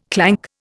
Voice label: "clank", Indian